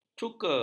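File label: "cuka", Malay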